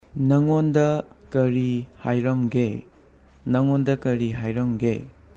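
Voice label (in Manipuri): "Mahakna karigi khao adu puraklibano?", Manipuri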